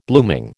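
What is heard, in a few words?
"blooming", American English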